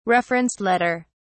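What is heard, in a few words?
"reference letter", English, uk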